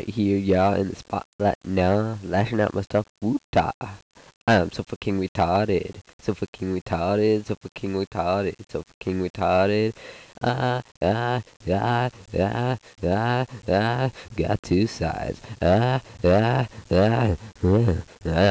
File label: That One Rap Voice